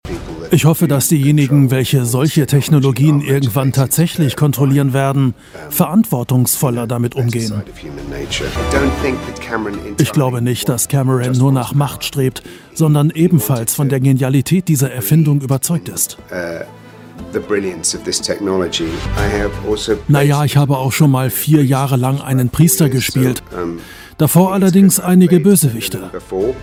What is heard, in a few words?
Voiceover; The Iris Affair